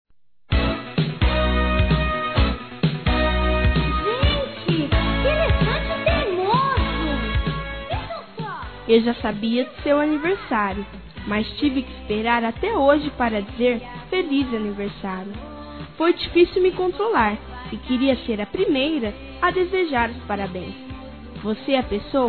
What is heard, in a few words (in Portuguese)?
TIO INFANTIL, Telemensagens; Aniversários; Aniv Familiar